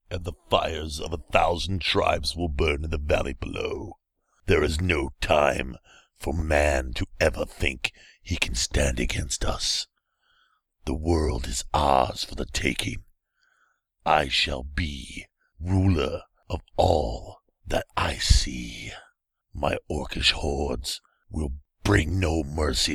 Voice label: orc voice test; teaser

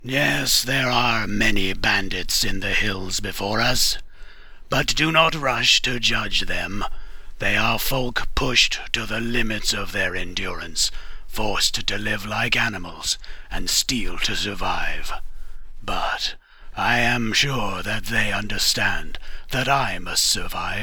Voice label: audition dunmer, dark elf voice auditions

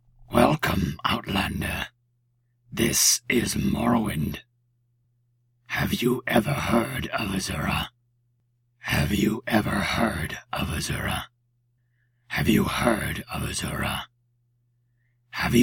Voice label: male dark elf audition

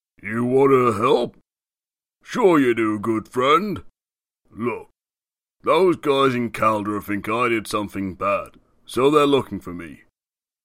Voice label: orc audition, voice acting; bugrol

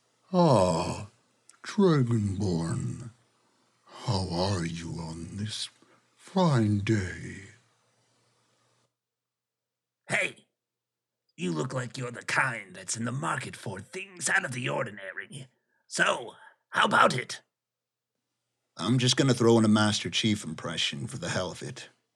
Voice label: unique voices audition; skywind

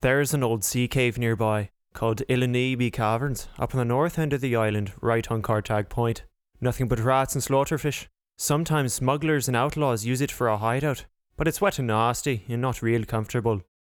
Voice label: breton voice auditions

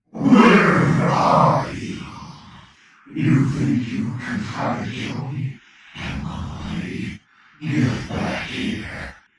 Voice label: demon voice

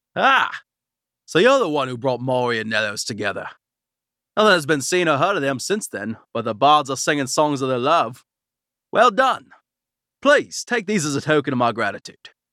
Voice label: breton voice audition

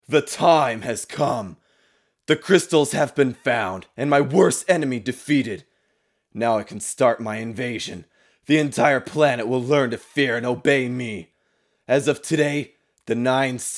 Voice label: the villain

